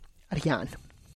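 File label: "rrian", Tlacochahuaya Zapotec; feed HAB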